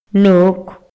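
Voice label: Maya, male; HABLA Y LENGUAJE; INALI; MayaYuc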